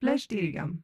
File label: Humanoid female greeting; Stellaris